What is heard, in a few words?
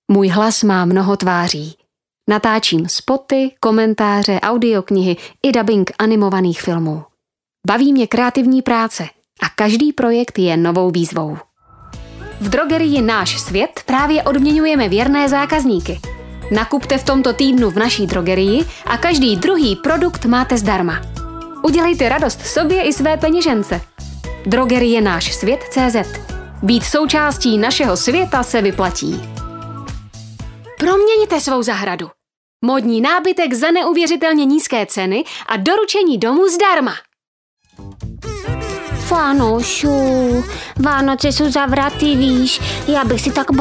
czech female; lektoring